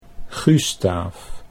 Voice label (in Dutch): "Gustaaf", Dutch